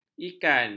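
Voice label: "ikan", Malay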